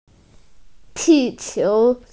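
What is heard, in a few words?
"balloon", Mandarin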